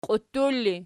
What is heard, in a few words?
"qiuttul", Archi